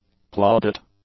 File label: "plaudit", English; nouns